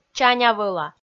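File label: "чаня-вӧла" Komi, female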